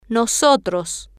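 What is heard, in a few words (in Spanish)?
"nosotros", Spanish, female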